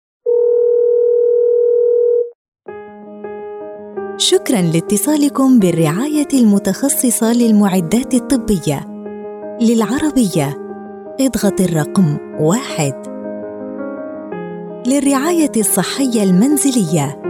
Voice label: ivr